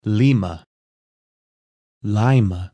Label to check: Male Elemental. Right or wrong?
Wrong